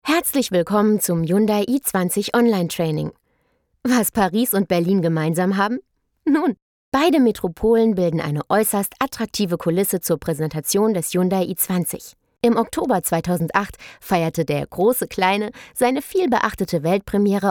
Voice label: elearning, deutsch frauen

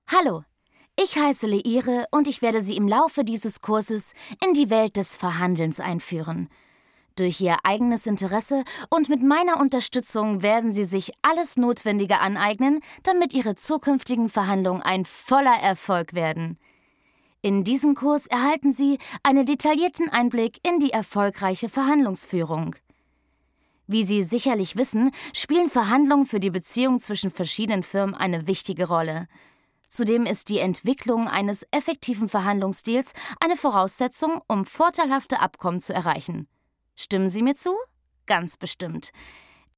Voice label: elearning, deutsch frauen; sprecher

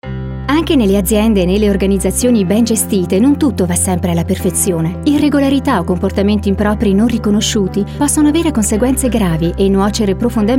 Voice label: it erklaerfilm, italienisch; sprecher

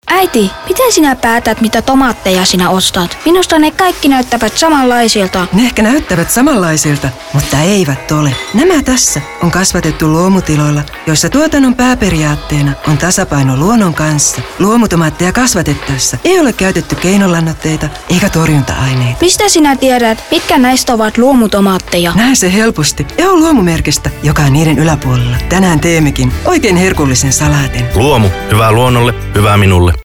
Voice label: fi werbung, finnisch; sprecher

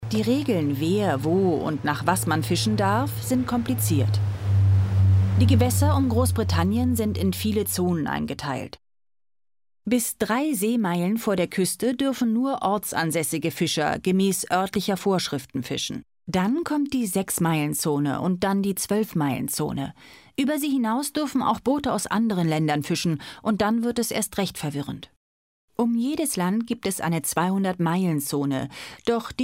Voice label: dokumentation, deutsch frauen; sprecher

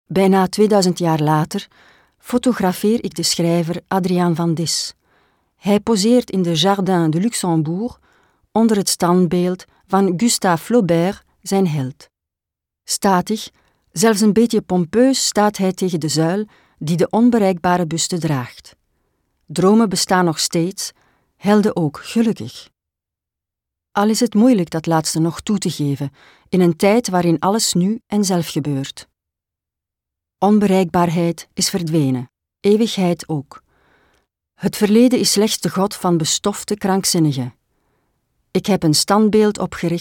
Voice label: flaemisch

